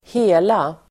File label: "hela", Swedish